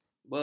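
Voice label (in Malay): "beguk", Malay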